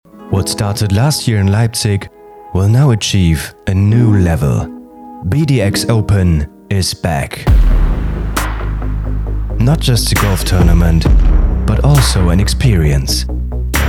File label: Trailer; BDX Open 2